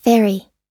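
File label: "ferry", English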